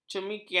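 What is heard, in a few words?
"cemingkian", Malay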